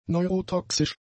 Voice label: "neurotoxisch", German; adjectives

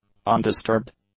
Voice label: "undisturbed", English; adjectives

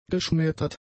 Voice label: "geschmettert", German; adjectives

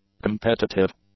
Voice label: "competitive", English; adjectives

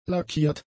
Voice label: "lackiert", German; adjectives

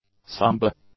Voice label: "samba", English

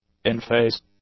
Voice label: "inphase", English; adjectives

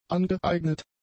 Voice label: "angeeignet", German; adjectives

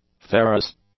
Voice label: "ferrous", english; adjectives

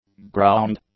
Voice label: "ground", english; adjectives

